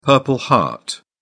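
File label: "purple heart", British English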